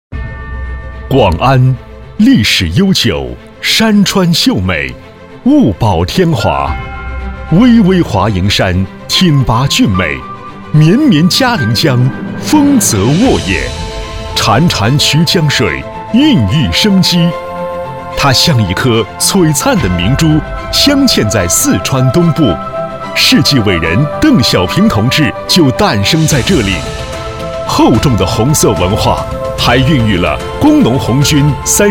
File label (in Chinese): 男 紧凑风格